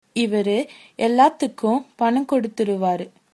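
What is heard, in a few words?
pay, tamil, female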